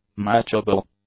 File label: "matchable", English; adjectives